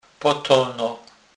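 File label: "potono", Polish, Kurpie dialect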